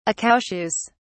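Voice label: "accoucheuse", British English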